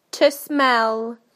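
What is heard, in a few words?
"to smell", British English; PictureDictionary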